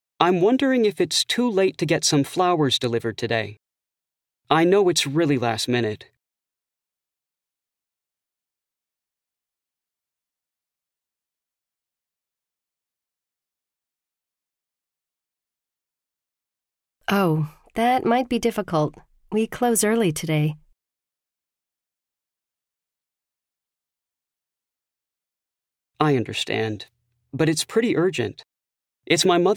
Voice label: Pronunciation Practice; Connectivity 3